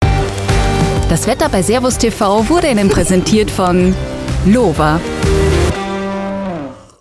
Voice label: TVC; LOWA 25 Years Renegade